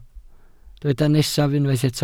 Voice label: "nesaf", Welsh